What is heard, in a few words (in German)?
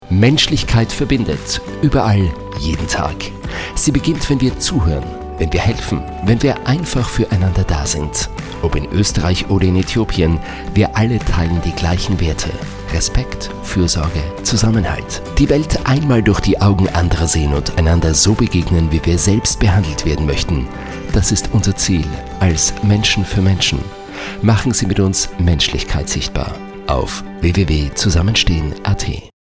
Radiospot; MFM; Zusammenstehen; menschlichkeit verbindet ueberall jeden tag